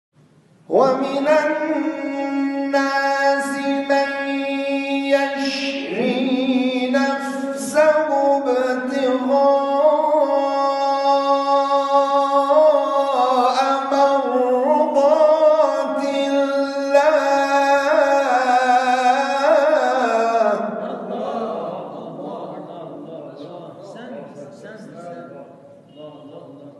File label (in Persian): تلاوت آیه لیلة المبیت